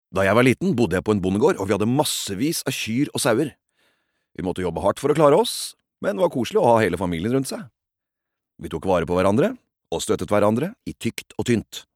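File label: Norwegian dub man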